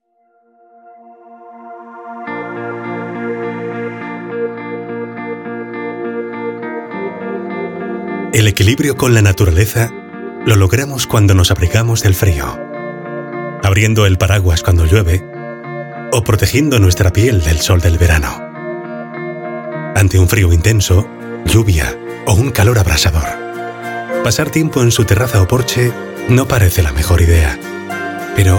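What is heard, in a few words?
male Castilian Spanish voice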